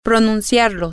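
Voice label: female, spanish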